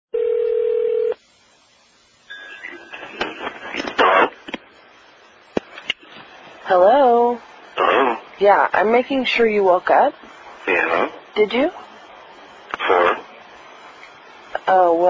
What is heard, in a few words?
hotel horny vacuuming; Hotel Calls